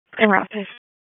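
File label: EMS Dispatch, p25; wake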